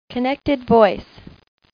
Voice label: Connected Voice (Female); q95demo

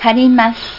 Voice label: "karimasu", Japanese; japaneselanguageguide

